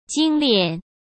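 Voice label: "精练", Mandarin Chinese; hsk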